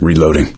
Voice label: reloading quiet; voice; source engine; left 4 dead 2; survivor; gambler